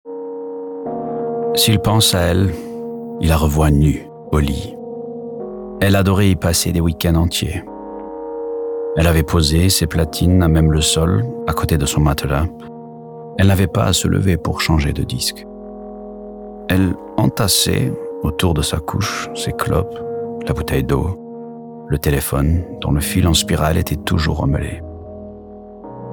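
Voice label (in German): Französisch; Vernon Subutex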